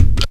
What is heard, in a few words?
VOX FX; E-Lab; X Static Goldmine 2; akai